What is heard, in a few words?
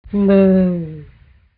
monster mumble thanks